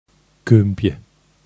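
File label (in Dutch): Kumpje, kerkraads dialect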